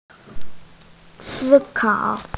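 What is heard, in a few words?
"sī kǎo", Mandarin Chinese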